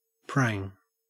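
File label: "prang", Australian English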